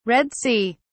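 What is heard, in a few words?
"Red Sea", British English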